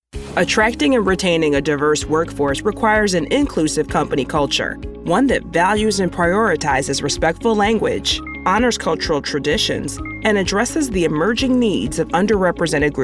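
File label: Corporate Narration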